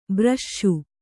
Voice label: "ಬ್ರಷ್ಷು", Kannada

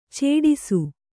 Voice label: "ಚಟ್ಟಿಸು", Kannada

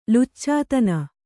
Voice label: "ಲುಚ್ಚತನ", Kannada

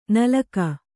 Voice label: "ನಲಕ", Kannada

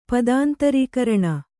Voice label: "ಪದಾಂತರೀಕರಣ", Kannada

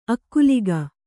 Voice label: "ಅಗಲಿಕೆ", kannada

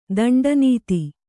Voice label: "ದಂಡನಾಥ", Kannada

